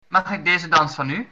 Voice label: dance, dutch

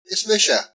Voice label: "my name is", Irish; Is mise ...

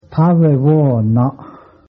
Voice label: good morning cheyenne

